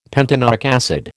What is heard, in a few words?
"pentanoic acid", American